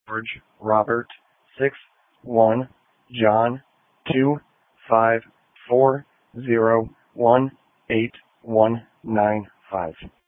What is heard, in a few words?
Sheriff Dispatch